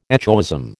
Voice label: "echoism", American

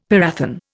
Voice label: "parathion", Indian; Dictionary

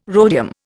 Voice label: "rhodium", Indian

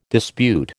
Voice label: "dispute", American

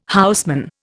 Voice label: "houseman", Indian

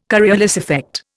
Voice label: "Coriolis effect", Indian

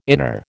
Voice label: "inner", American

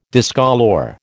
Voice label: "discolour", American

